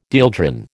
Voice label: "dieldrin", American

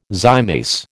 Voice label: "zymase", American

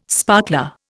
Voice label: "sparkler", Indian

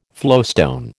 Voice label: "flowstone", American

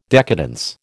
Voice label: "decadence", American

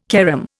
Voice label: "carom", Indian

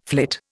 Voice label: "flit", Indian

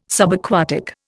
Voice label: "Subaquatic", Indian

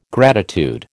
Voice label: "gratitude", American

